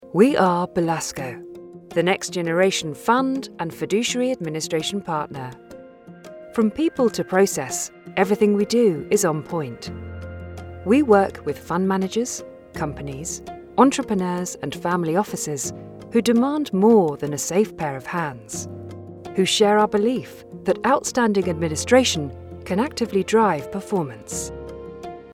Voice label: Confident Professional; Belasko